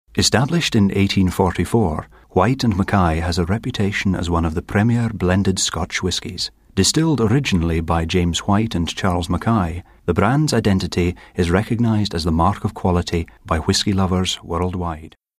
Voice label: smooth